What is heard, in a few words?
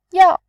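"yao", Mandarin Chinese, tone 4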